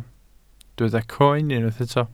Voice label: "croen", welsh